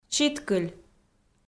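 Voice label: "читкыль", Komi, female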